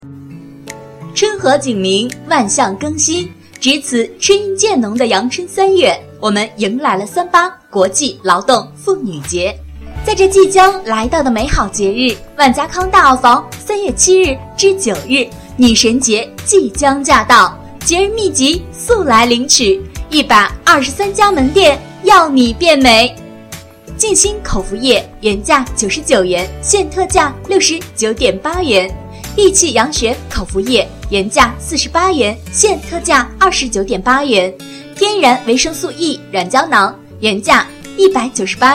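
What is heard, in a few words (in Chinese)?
女神节 广播, 广告，促销广告，旁白，企业简介 可大气 可活泼，声音醇厚, 年轻时尚配音 年轻活力配音 青年（18-35）配音 普通话配音 女配音; 日女神节广播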